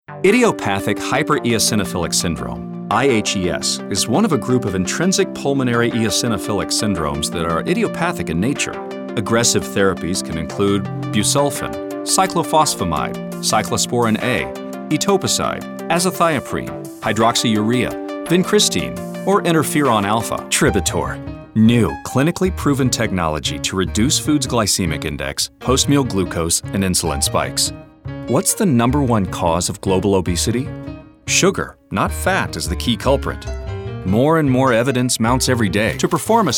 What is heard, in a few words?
Medical Narration